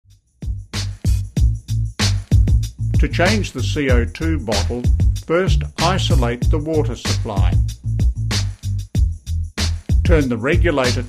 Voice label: Explainer audio

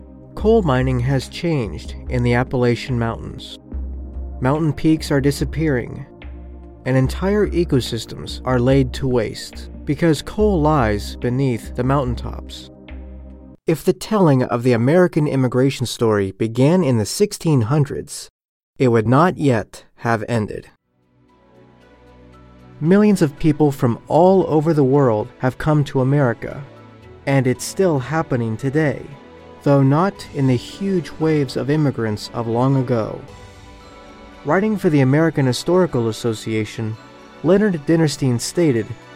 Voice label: Documentaries and Narrations

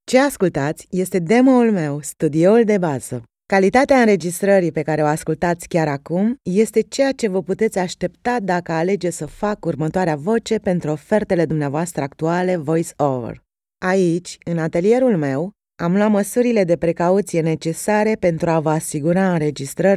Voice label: Dry Studio

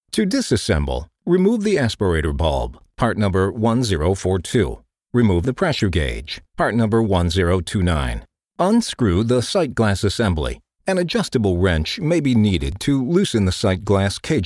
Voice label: eLearning Corporate

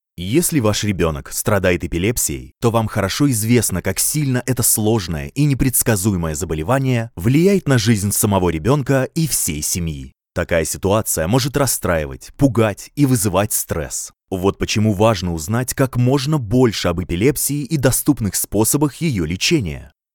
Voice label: Documentary RUSSIAN

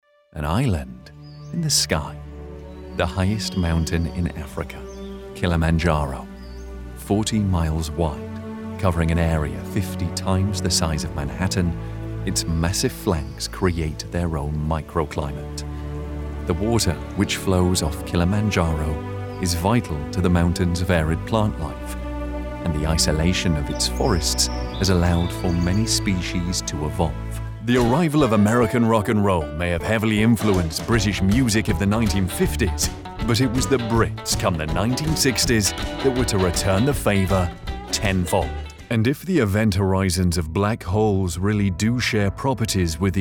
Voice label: Narration Mix

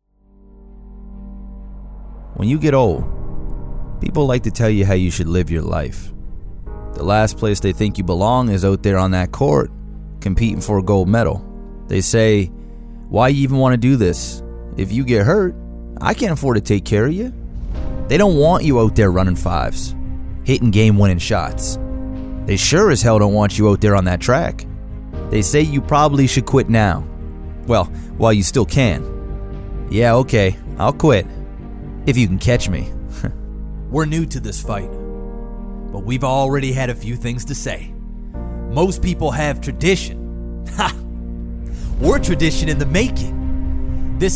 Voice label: Motivational

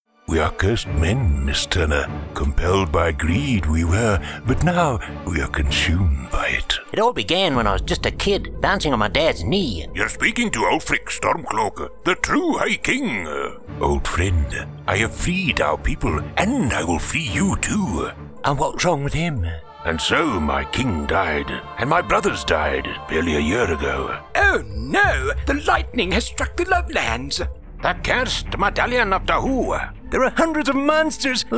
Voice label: Gaming Voices

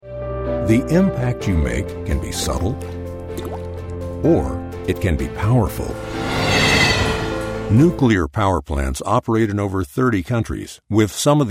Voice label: narration sampler

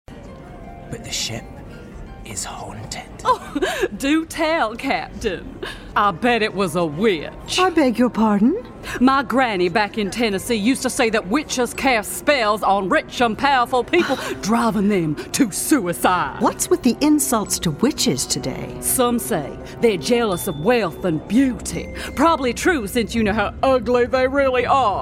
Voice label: American; Dark Shadows; Phantom Bridge

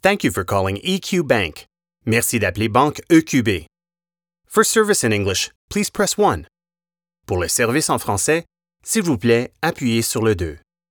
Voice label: bilingual phone; EQ Bank

